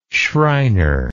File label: "schreiner", English